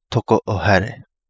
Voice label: "Togo O Hale", Kapingamarangi